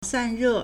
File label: "san1re4", Chinese